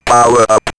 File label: Robot Power Up, robot vox; X-Static Goldmine